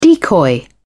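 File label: "decoy", British English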